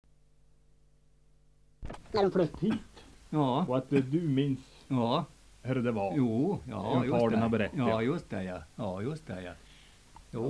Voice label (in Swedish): Häggenås, dialekter; Svenska sagor; Folkminnen